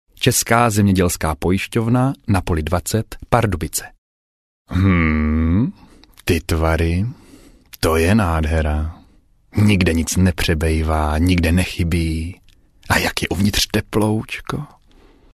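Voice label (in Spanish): locutor checo, Male Czech voiceover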